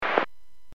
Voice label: Aviation 121.5 Emergency